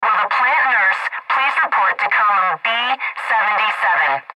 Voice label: Factory Pa Female Plant Nurse Report To Column B-77; Pa Announcement; Factory Pa Voice Clip Radio Middle Age Female; Blastwave